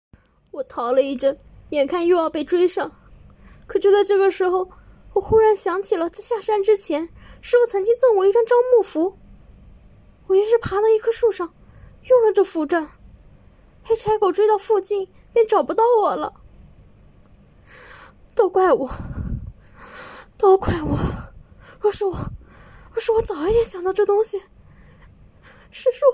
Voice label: 哭腔少女音

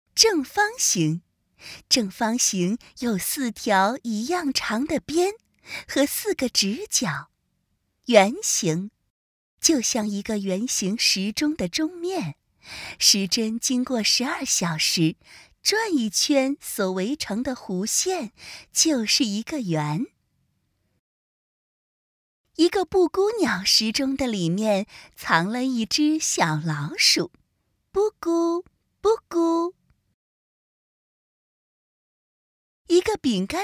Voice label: 成女科普解说感

